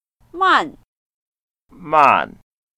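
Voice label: "man4", Mandarin Chinese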